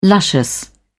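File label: "luscious", British English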